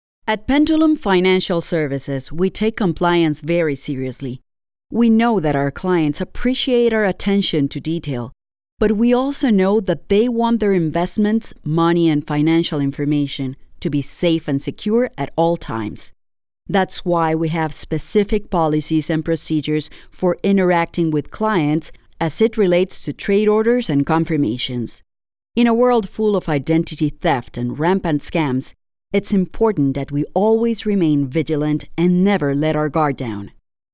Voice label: Corporate Narration